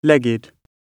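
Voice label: "leg", British English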